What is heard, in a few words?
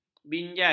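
"binjai", Malay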